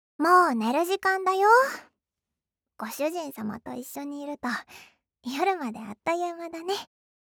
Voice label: シチュエーションボイス

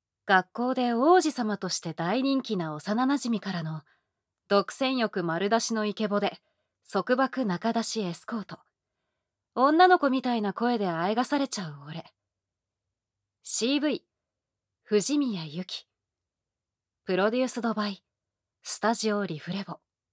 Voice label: タイトルコール, ASMR

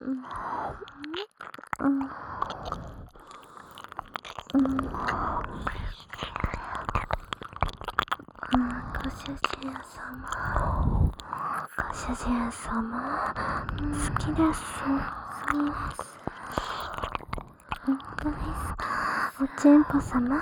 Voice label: 耳元で淫語を囁かれながら耳舐めループ音声; 淫語塗れの囁き耳舐めループ; オナサポ

